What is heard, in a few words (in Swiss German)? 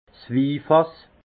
"wifass", alsace; vin; tonneau à vin